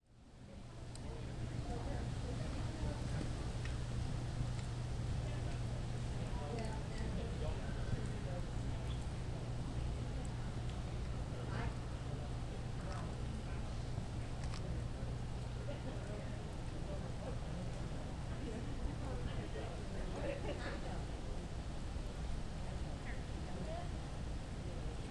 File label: campfire chatter; Wellesley Island